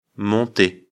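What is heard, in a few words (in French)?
"monter", French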